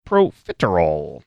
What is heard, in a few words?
funny manner; protfiterole